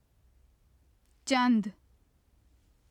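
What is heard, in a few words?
"cand", Urdu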